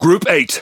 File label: Speech Pilots Torpedo, EN GB; World Of Warships